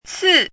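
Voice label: "cì", Mandarin Chinese; 刺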